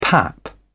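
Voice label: "pap", British English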